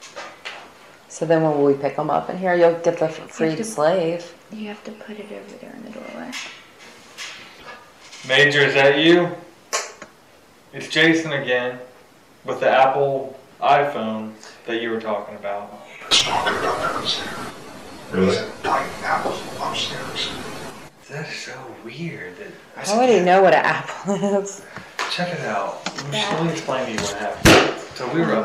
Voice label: APPLE IPHONE DEEP VOICE; EXCHANGE HOTEL

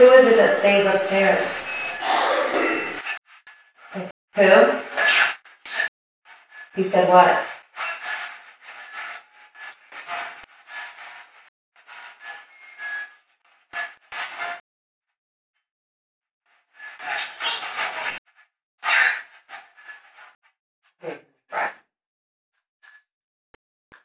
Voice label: gibberish warning and leave

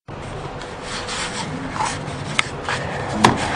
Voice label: House EVP

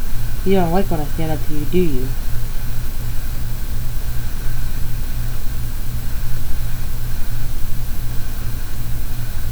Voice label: question and answer

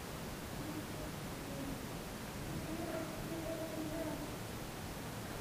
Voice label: female child warning

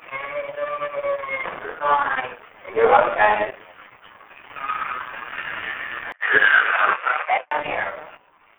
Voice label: crying did you hurt my boy

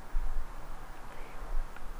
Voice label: wisper

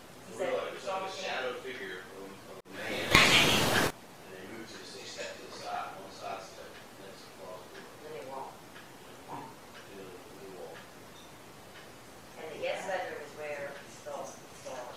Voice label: native american or french not sure

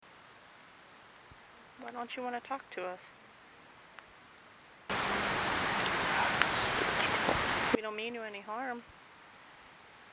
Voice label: man speaking amplified

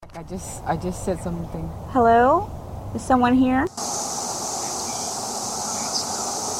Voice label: EVP; Micanope

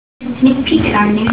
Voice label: he peeks on me evp

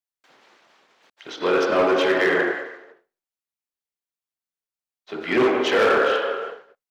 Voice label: him speaking

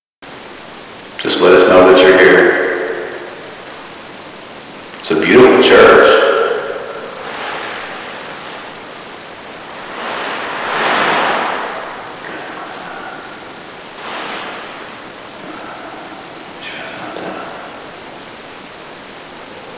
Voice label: Other language EVP

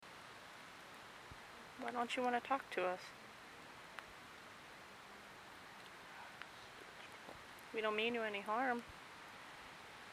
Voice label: man speaking

